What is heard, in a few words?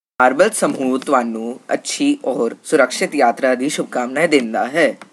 Punjabi